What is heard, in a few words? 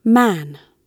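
"man", British English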